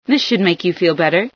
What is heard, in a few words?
heal, female